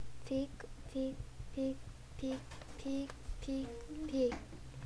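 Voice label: "pick", spoken word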